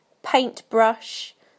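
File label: "paintbrush", British English; PictureDictionary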